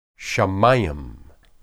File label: "heaven", Hebrew